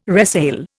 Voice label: "resale", Indian